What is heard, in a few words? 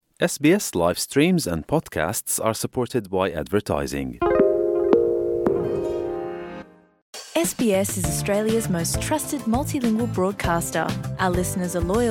gujarati news bulletin; sbs